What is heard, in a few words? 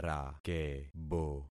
"rakebo", concat syllables; Affixing experiment